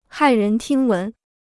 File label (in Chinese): "骇人听闻", Chinese